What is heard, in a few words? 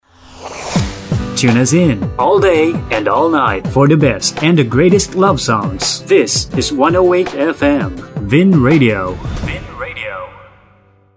Radio ID